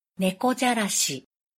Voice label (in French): Prononciation de nekojarashi